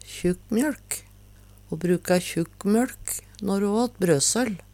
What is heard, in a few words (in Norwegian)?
kjukkmjolk, Numedalsmål